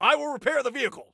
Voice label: Self Repair Vehicle, Soldier Male; Soldier Male 02